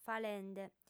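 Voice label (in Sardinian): "falende", female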